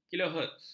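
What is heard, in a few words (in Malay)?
"kilohertz", Malay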